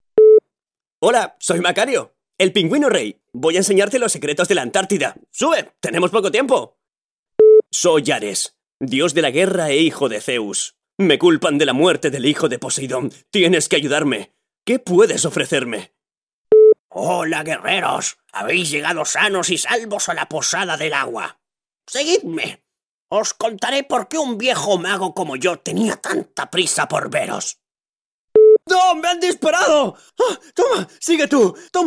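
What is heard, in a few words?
European Spanish, characters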